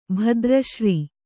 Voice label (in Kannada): "ಭದ್ರಶ್ರೀ", Kannada